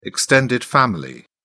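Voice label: "extended family", British English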